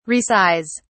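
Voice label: "resize", British English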